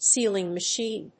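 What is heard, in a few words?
"sealing machine", English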